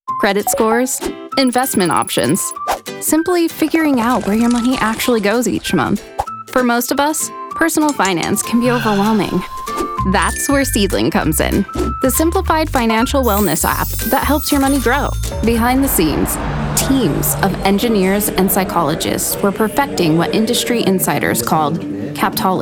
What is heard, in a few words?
Narration